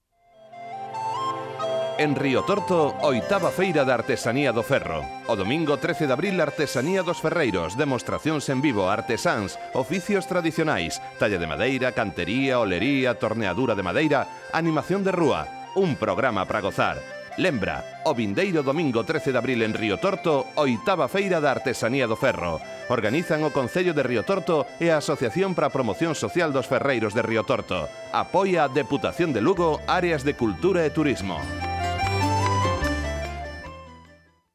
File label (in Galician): anuncio radio; feira da artesania do ferro; riotorto